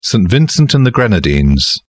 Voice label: SPOKEN Saint Vincents and the Grenadines